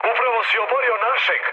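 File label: Serbian player killed ally mood med; War Thunder; speech srb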